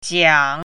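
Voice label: "jiang3", Mandarin Chinese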